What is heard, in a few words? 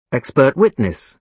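"expert witness", British English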